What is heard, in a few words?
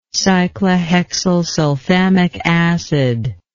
"cyclohexylsulfamic acid", english